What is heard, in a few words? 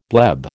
"bleb", American